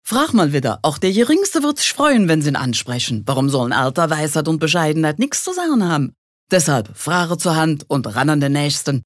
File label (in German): Dialekt Rheinisch